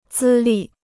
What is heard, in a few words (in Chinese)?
"资历", Chinese